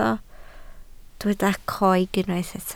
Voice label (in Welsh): "coeg", Welsh